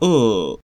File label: near close near back rounded vowel, phoneme